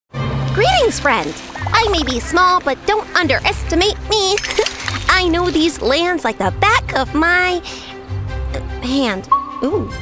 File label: playful gnome; video games animation